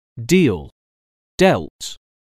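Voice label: "deal", English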